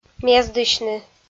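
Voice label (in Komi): "мездысьны", Komi-Zyrian, female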